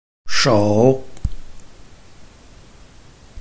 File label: "shou3", Chinese